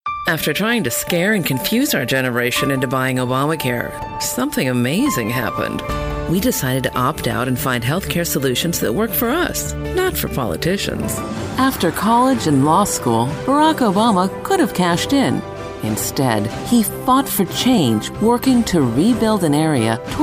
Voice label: Political American woman